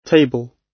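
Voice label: "table", British English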